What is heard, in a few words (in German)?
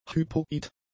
"hypoid", German; adjectives